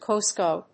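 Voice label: "Vco", English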